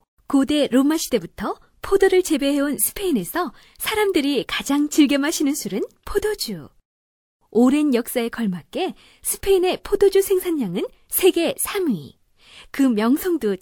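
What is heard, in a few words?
Korean, female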